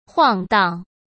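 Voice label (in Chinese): "晃荡", Mandarin Chinese; hsk; chinese flashcards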